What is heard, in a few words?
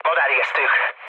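enemy detected, mood low, Hungarian; speech; War Thunder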